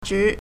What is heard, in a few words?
"zhi2", Chinese